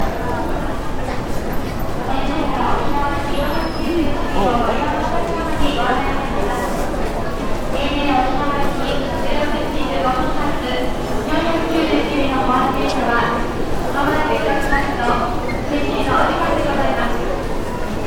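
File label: airport announcement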